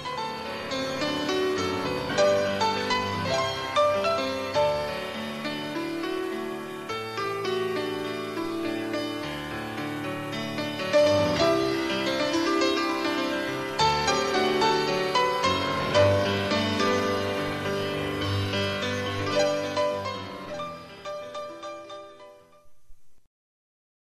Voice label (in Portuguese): dia do amigo feminina; dia do amigo a voz feminina